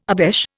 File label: "obeche", Indian